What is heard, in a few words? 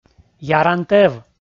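"яран тӧв", Komi, male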